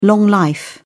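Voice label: "long life", British English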